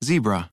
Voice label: zebra, American

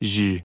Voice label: phoneme television, American; American IPA phonic

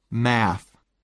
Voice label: normal math, American IPA phonic

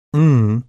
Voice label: phoneme ng, American; American IPA phonic

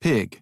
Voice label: pig, American

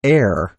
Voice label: phoneme r air, American

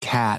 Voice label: slow cat, American; American IPA phonic

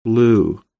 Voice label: normal blue, American IPA phonic

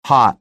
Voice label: hot, American

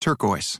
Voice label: turquoise, American

